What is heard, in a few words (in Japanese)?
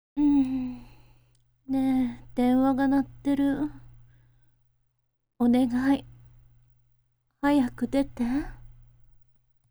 tel woman